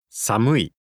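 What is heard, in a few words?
"samui", Japanese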